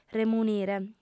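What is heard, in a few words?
"remunire", female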